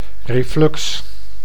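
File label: "reflux", Dutch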